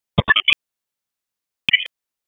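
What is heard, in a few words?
Raleigh Police North District Tactical; p25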